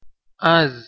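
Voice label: "az", Persian, male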